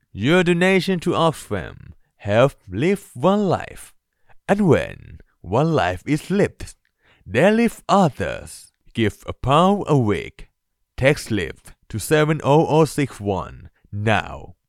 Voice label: english corporate videos

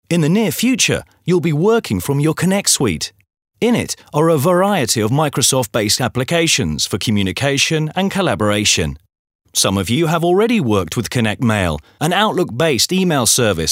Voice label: english british training e learning

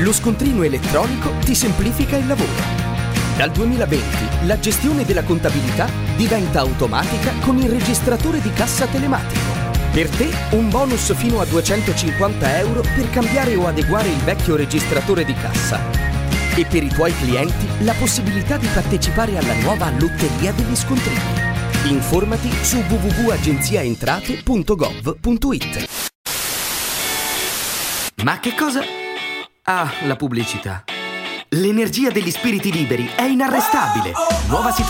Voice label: italian commercial

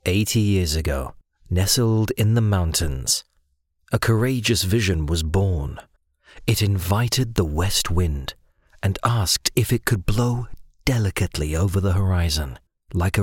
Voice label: english british narration

